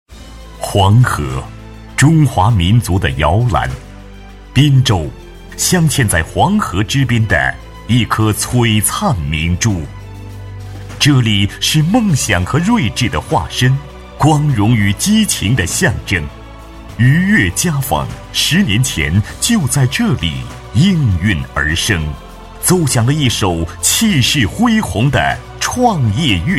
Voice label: 男国 专题 企业 厚重; 家纺公司

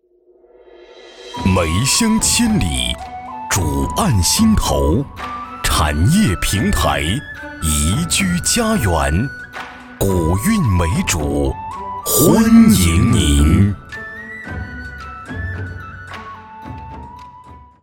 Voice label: 男国 广告 城市 城市宣传 大气

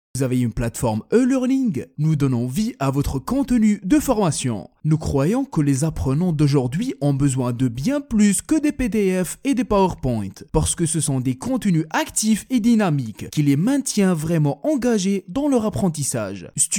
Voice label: 阿拉伯语男 外语 小语种

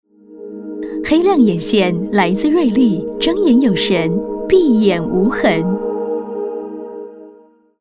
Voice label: 广告 化妆品 时尚; 瑞丽

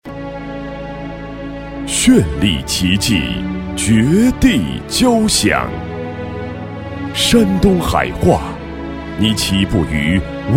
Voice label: 专题 企业 大气, 男国; 山东海化

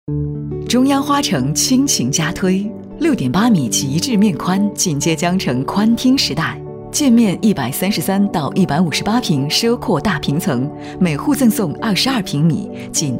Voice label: 女 广告 地产 知性; 中央花城

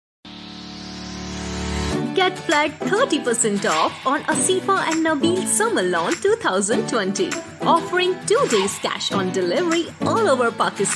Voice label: 印地语女 外语 小语种 时尚

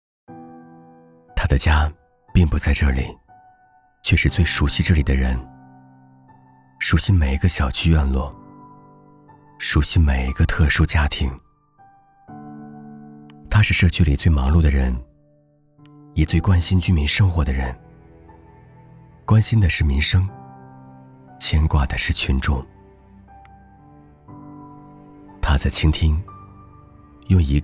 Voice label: 其他 旁白 走心人物社区人物, 男

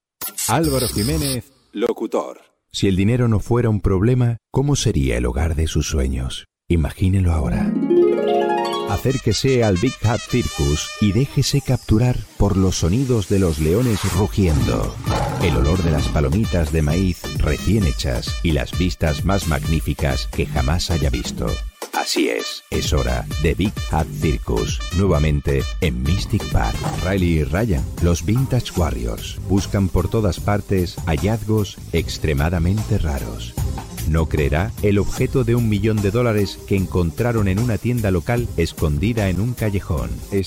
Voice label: 西班牙语男, 外语

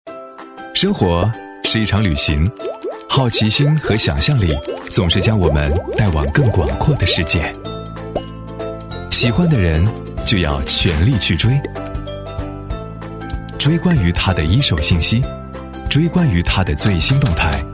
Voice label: 男国 宣传片 病毒 资讯

